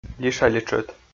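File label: "лиш али тшӧт", Komi, male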